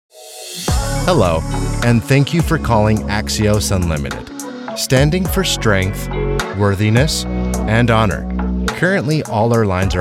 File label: IVR